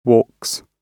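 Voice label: "walks", British English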